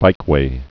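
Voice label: "bikeway", spoken word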